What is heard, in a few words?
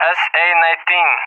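"SA-19", English speech; AirDefence; DCS; Allied Flight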